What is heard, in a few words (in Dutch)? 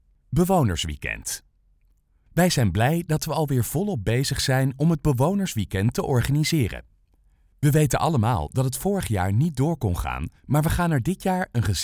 Voiceover